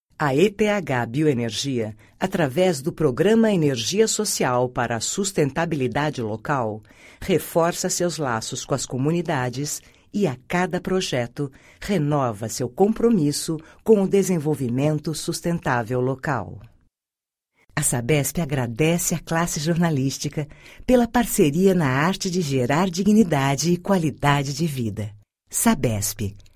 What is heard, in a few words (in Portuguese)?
Institucionais Corporativos